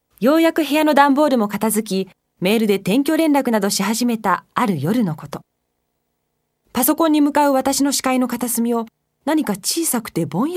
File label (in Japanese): モノローグ